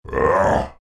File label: anger zh; Dota 2